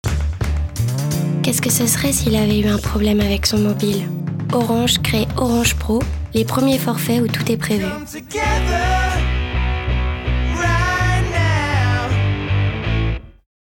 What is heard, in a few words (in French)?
Jeune adulte, VOIX ADO JEUNE ADULTE; ORANGE